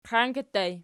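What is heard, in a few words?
"qianki" ergative plural, Archi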